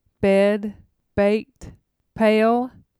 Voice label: bed bait pail, TN